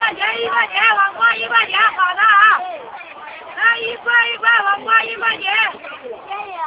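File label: cucumber, Beijing Street Sounds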